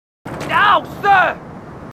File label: marines, aussie; h2dialogue